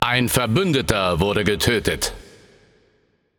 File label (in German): Announcer German Match Slain Ally